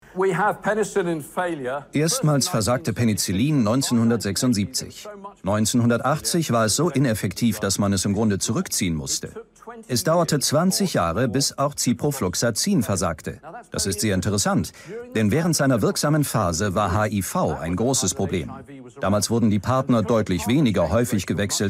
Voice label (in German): Dokumentation O-Ton Medikament